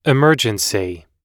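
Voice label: "emergency", English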